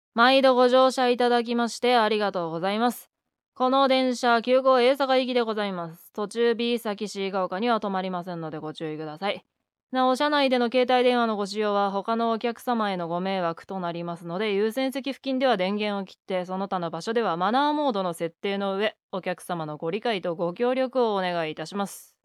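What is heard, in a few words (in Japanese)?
めんどくさー, 車内アナウンス的なもの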